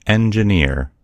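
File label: "engineer", American English; gcompris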